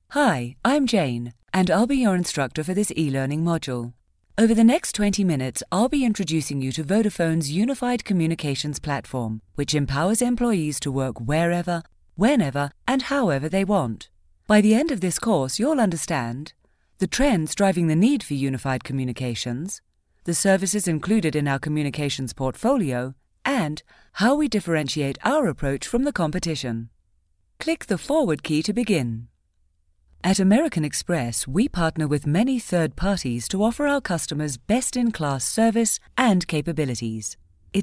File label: elearning